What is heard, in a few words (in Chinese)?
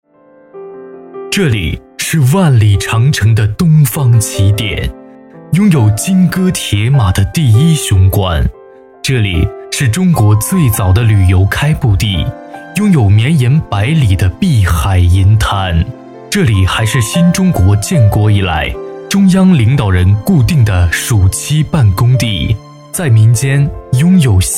学校宣传沉稳舒缓; 燕山大学